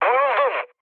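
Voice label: aircraft damaged, mood low, Turkish; War Thunder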